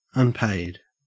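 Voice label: "unpaid", Australian English; pronunciations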